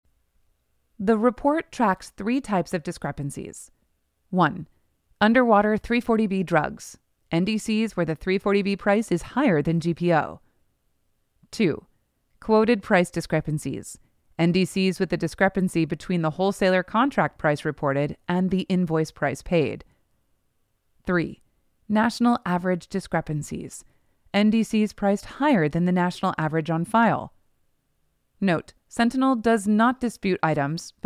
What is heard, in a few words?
eLearning; Austin, TX